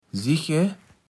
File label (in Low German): "sij'e", Platt